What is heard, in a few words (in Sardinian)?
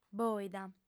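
"bòida", female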